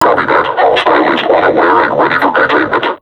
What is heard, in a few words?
taunt, vo combine suppressor; Half Life Alyx